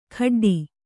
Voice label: "ಖಡ್ಡಿ", Kannada

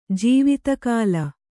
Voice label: "ಜೀವಿತಕಾಲ", kannada

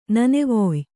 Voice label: "ನನೆವೊಯ್", kannada

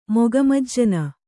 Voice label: "ಮುಖಮಜ್ಜನ", Kannada

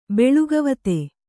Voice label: "ಬೆಳುಗವತೆ", Kannada

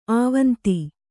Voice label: "ಆವಂತಿ", kannada

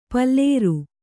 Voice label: "ಪುಲ್ಲೋರಿ", kannada

